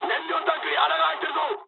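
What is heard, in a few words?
Japanese tank damaged mood high; War Thunder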